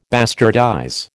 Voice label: "bastardize", American

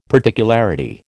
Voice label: "particularity", American

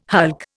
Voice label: "hulk", Indian

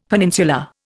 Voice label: "peninsula", Indian; Dictionary

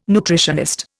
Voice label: "nutritionist", Indian

